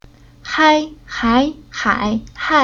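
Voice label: "Hai", Chinese syllable; Уроки фонетического блока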